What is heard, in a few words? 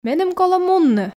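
Komi, female; меным колӧ мунны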